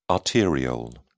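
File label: "arteriole", English, British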